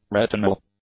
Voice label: "retinal", English; adjectives